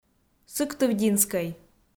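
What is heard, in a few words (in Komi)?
"сыктывдинскӧй", Komi, female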